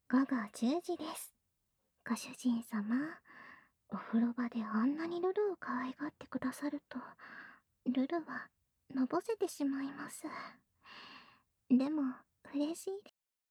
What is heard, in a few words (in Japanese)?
時報ボイス 午後10時です; 好感MAX従順奴隷メイド~甘トロ媚び媚び奉仕~